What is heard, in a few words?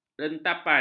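"rentapan", Malay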